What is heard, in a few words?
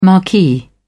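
"marquee", British English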